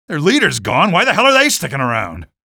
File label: cit alert soldier, male; Garry's Mod